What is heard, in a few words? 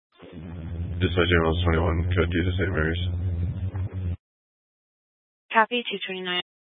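Fire Dispatch, Scanner audio recording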